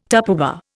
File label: "Tupperware", Indian